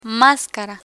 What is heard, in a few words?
"mascara", Spanish; learn spanish